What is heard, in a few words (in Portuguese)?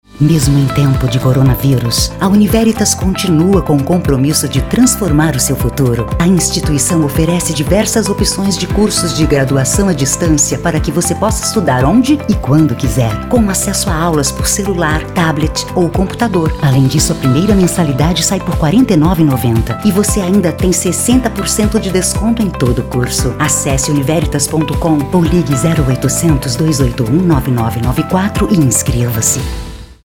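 Português - Brasileiro, Voz Padrão - Grave, Feminino; FACULDADE UNIVERITAS GUARULHOS CORONAVÍRUS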